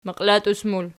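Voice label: "maqilatus", plural, Archi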